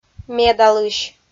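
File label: "медалысь", Komi, female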